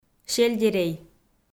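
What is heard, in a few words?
"сельдерей", Komi, female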